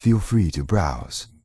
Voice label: Night Elf Male Standard Vendor

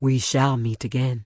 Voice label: Tauren Female Official NPC Farewell

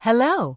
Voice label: Human Female Standard NPC Greeting

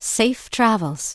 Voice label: Human Female Official NPC Farewell

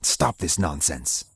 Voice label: Night Elf Male Standard NPC Pissed

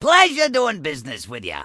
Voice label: Goblin Male Gruff NPC Farewell

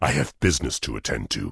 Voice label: Tauren Male Warrior NPC Pissed

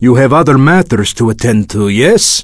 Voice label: Draenei Male Pissed

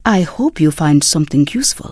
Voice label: Draenei Female Military Vendor

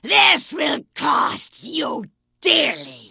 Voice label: Vrykul Female Warrior Vendor

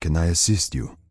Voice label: Night Elf Male Standard Greeting

